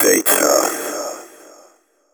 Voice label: techno male 140; Vocals

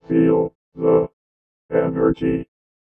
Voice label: VOICEFX ELCTR 140; Techno2

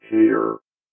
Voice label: VOICEFX ELCTR 140; Techno2

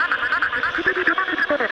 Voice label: TECHNO VOCALS 140; Techno2

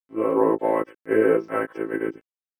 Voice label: VOICEFX ELCTR 140; Techno2

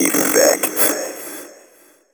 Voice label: techno male 140; Vocals

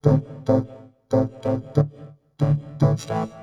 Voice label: VOICEFX TEKNO 140; Techno2

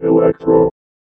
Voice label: VOICEFX ELCTR 140; Techno2

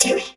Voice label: VOX, VOCODER

